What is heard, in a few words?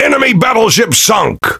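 Pirate Speech Destroy Enemy Battleship, English pirate; World Of Warships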